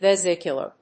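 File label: "vesicular", English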